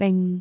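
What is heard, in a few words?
"bing3", Cantonese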